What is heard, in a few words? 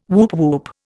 "woop woop", Indian